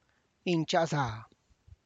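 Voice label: tlacochahuaya, Zapotec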